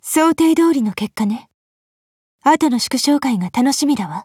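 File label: Victory, Japanese; OTs-14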